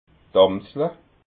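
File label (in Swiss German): "amsla", Alsatian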